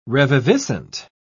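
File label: "reviviscent", British English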